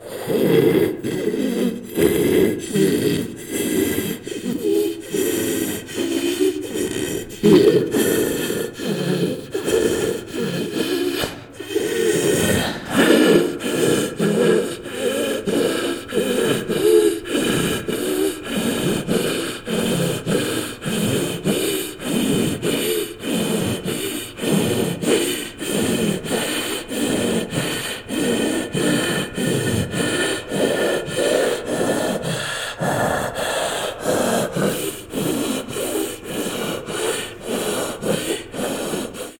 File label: Male Ghost Hunting; Phasmophobia